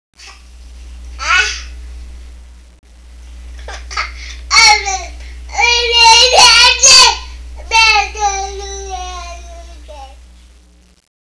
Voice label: babble